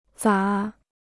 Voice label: "咋", Chinese

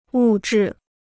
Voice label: "物质", Chinese

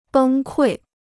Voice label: "崩溃", Chinese

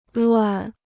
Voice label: "乱", Chinese; 乱 (luàn); in confusion or disorder

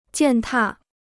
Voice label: "践踏", Chinese

